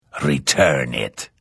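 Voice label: Thief, Vo; Morrowind GOTY GOG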